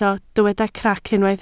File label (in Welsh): "crac", Welsh